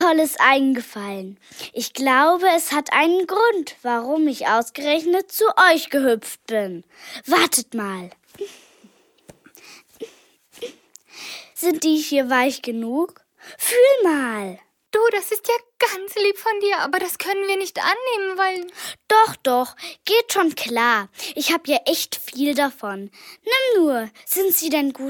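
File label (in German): Hörspielszene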